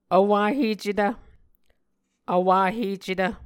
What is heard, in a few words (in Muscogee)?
"vwahecetv", Muscogee